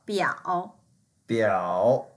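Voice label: "biao3", Mandarin Chinese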